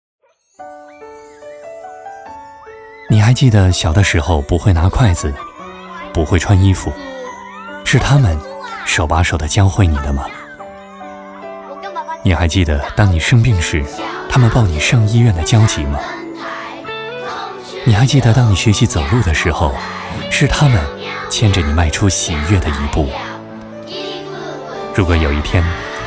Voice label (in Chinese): 男 公益广告 磁性抒情 温馨情感 关爱父母